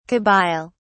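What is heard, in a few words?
"kabyle", British English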